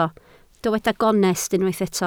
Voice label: "gonest", Welsh